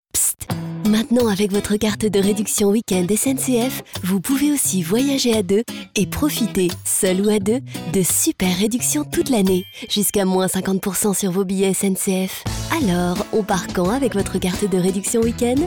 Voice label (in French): promo toute zen; SNCF